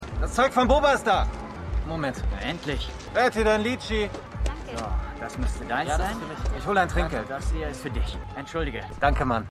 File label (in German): Junger Mann; Shameless